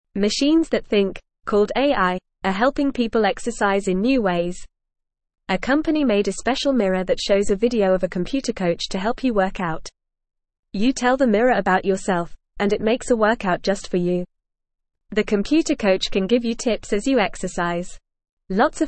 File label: Lower Intermediate FAST Reading, English; English Newsroom